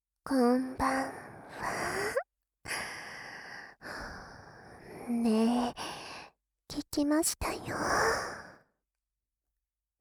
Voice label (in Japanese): 双子天使の耳元囁き, ASMR; Wフェラご奉仕♪→最後には2人のお口に射精でごっくん！; SEあり